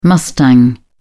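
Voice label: "mustang", British English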